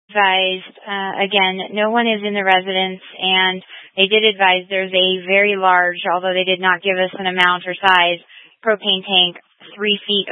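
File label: Incident Tactical 2, Scanner audio recording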